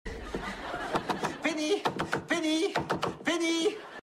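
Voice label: Big Bang Theory French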